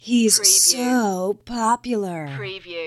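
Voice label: Female He So Popular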